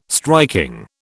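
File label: "striking", British English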